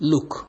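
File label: "look", Nigerian Pidgin, male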